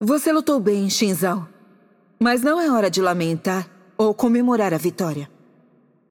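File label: voice over, Brazilian Portuguese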